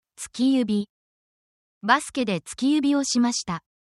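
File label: Japanese word, japanese phrases; under the weather essential japanese phrases for medical symptoms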